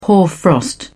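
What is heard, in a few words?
"hoarfrost", British English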